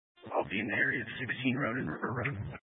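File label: Sheriff Dispatch, scanner audio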